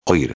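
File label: "oir", Spanish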